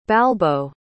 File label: "balbo", pronounce